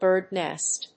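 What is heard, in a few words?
"bird nest", English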